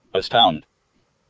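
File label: "astound" English, US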